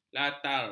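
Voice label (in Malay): "latar", Malay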